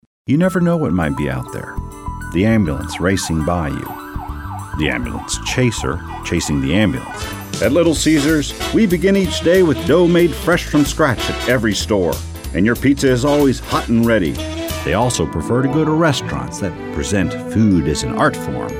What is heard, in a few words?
Commercial